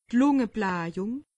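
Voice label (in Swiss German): "lungeblahjung", Alsatian; medecine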